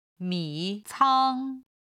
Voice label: "mi3 cang1", Chinese